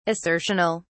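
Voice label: "assertional", British English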